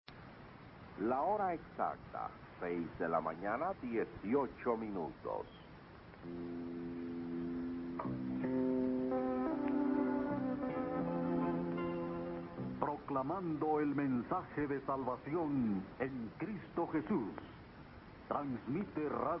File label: Guatemala City 3300 kHz; Radio Cultural